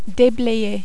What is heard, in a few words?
"deblayer", French